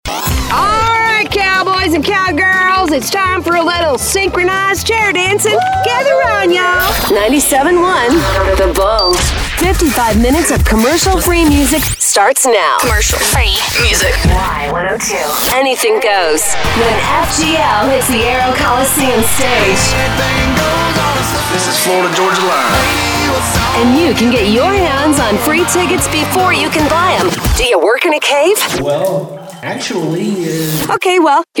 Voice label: radio imaging women country